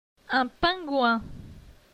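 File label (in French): "Un pingouin", French; phonetique; la graphie gn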